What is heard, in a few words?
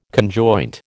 "conjoint", American